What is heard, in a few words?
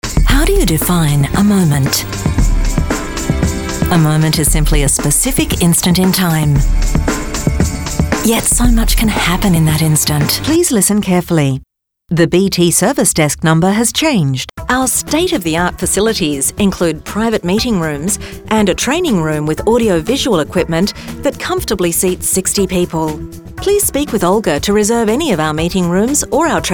corporate